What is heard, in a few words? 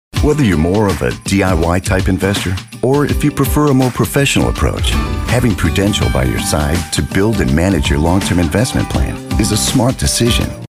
Friendly Caring Sincere Warm; Prudential Financial